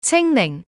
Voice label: slot fruits female